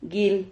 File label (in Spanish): "guil", Silabas